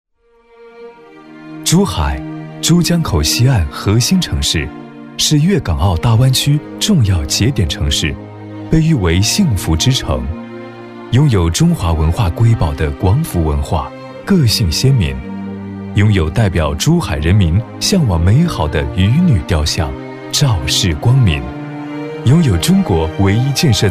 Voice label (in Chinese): 男 专题 大气 年轻; 珠海城市宣传